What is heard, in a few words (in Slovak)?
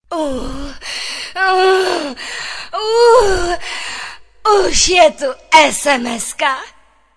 sms hlásky cz